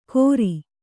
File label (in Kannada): "ಕೊರಿ", Kannada